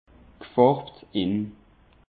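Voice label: "gfarbtin", Alsatian, Haut Rhin; peche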